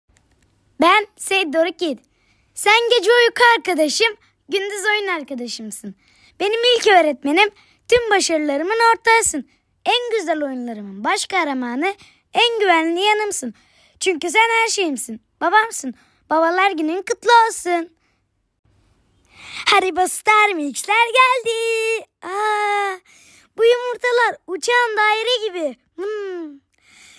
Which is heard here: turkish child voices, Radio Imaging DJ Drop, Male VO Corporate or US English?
turkish child voices